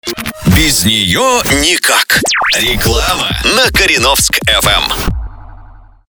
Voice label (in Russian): Reklama